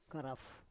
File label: "karaf", Roon